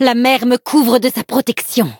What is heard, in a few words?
battle, fr